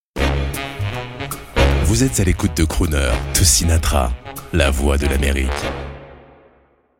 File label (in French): Habillage TV Radio Crooner FR